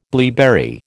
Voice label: "blaeberry", American